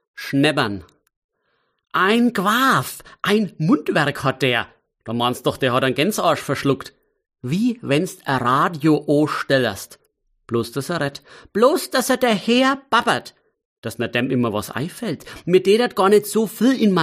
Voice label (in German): Mundart